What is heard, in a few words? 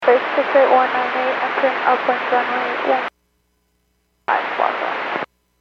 Aviation 122.7; KSTE